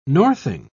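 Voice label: "northing", British English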